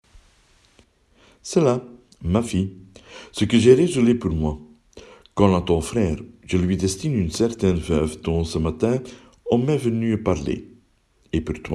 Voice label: French